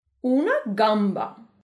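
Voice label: "crevette", French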